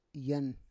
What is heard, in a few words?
"yan", Roon